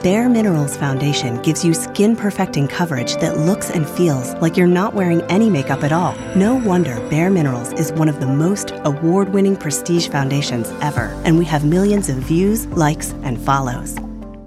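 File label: American English, female, corporate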